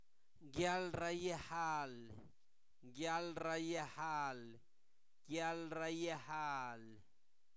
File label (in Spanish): enfriamiento, Zapoteco, santo domingo albarradas; INALI; sustantivos